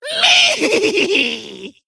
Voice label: Female Saurok Attack

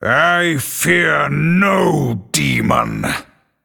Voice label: Male Night Elf Bark; Illidan Stormrage

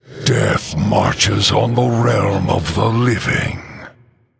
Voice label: Male Human HAPPY HALLOWEEN

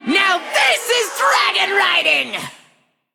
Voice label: Female Forsaken Play

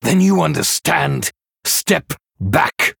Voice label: Male Human; Edwin; Scabbs; Kurtrus; Idle

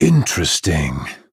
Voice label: Thinking

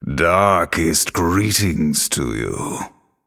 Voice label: Male Night Elf Greetings

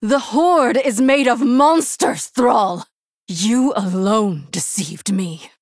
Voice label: Human Female

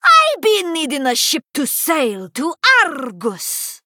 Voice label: Female Draenei PIRATE DAY

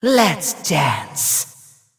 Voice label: Female Shivarra Attack

